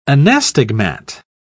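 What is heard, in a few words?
"anastigmat", English, British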